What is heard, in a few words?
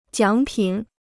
"奖品", Chinese; 奖品 (jiǎng pǐn); award; prize